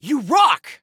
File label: Compliment You Rock, Soldier Male; Soldier Male 01